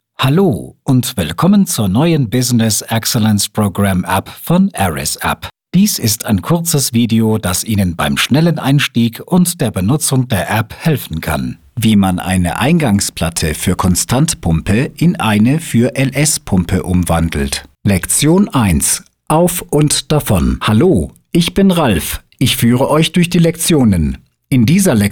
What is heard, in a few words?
E-Learning Compilation